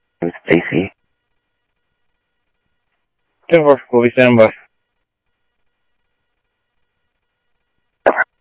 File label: South Scottsdale Sheriff, scanner audio